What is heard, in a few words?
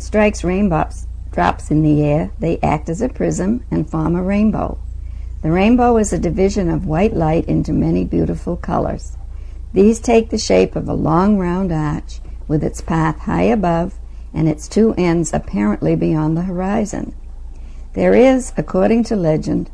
massachusetts, dialects